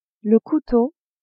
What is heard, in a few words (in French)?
"couteau", French; cuisine